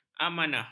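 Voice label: "amanah", Malay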